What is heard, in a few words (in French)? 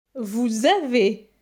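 "Vous avez", French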